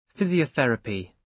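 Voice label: "physiotherapy", British English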